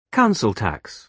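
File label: "council tax", British English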